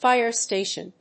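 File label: "fire station", English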